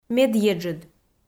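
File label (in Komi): "медъеджыд", Komi, female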